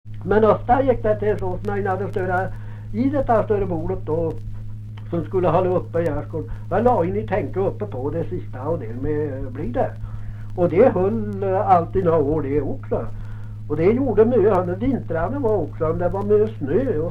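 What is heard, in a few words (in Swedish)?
Lerdal Dalsland, dialekter; Folkminnen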